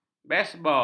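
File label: "besbol", Malay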